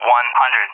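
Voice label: "100", English, USA; Numbers; DCS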